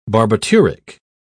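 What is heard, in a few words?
"barbituric", British English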